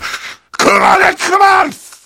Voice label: heavy jeers

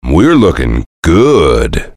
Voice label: soldier cheers

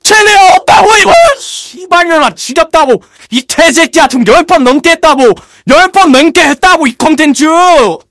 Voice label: scout negative vocalization

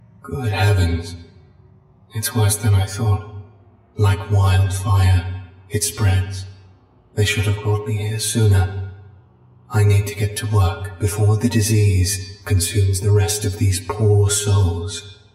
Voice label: battlecry; scp sf; 049

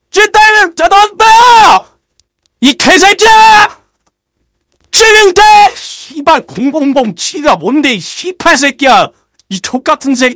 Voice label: scout cheers

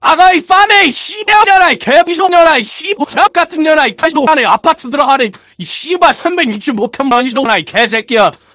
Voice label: scout jeers

